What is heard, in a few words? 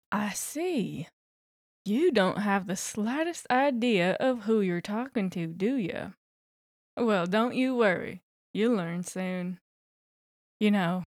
southern sheriff, voice acting